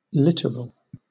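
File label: "littoral", British English; pronunciations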